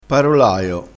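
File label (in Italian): "parolaio", dialettale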